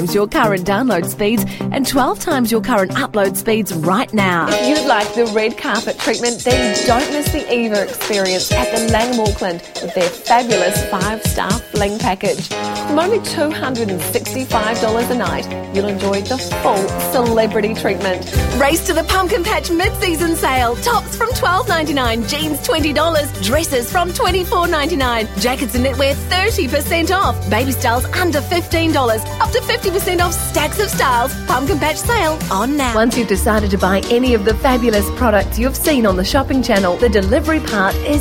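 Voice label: english new zealand female narration; voice demos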